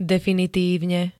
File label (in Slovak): "definitívne", Slovenské